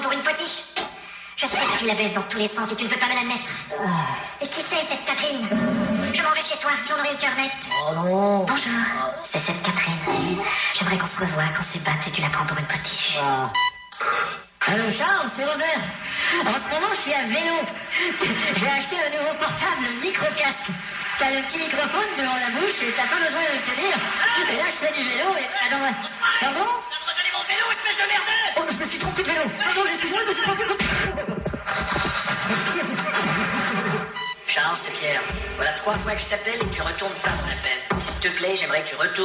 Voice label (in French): Répondeur; Les 2 minutes du peuple